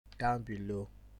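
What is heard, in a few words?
"down below", Nigerian Pidgin, male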